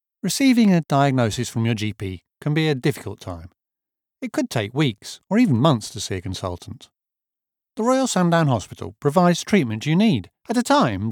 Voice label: Private Hospital, English Private Clinic: Articulate Real Believable Genuine British; Medical Narrations